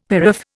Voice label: "paraph", Indian; Dictionary

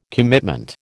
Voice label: "commitment", American

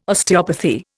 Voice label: "Osteopathy", Indian

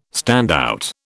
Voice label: "standout", British

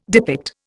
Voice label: "depict", Indian

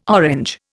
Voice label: "Orange", Indian

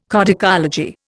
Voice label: "codicology", Indian

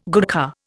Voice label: "Gurkha", Indian

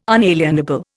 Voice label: "unalienable", Indian

